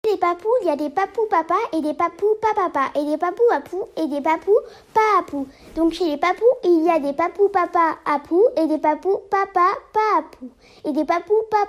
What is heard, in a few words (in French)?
Virelangue